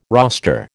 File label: "roster", American